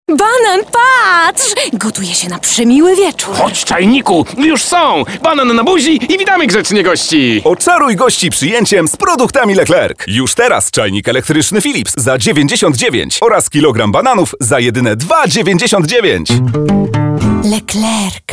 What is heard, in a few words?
commercial